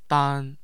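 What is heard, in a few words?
"dan", Chinese, first tone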